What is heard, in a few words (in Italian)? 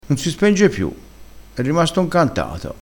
"incantato", crespinese